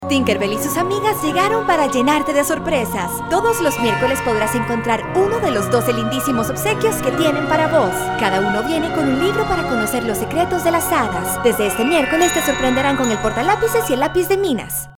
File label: spanish latin american promos